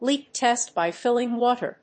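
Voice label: "leak test by filling water", English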